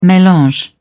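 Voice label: "melange", British English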